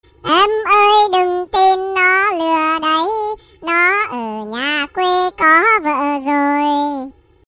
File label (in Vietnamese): Em ơi đừng tin nó lừa đấy, Nhạc chuông